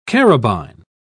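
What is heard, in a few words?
"carabine", English, British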